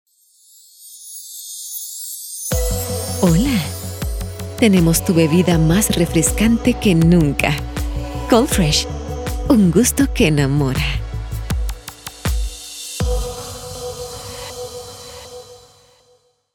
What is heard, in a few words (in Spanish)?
Locucion Sensual